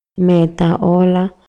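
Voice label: Maya, male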